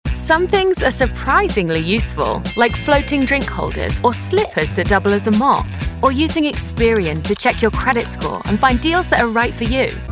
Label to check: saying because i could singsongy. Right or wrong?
Wrong